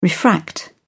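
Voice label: "refract", English, uk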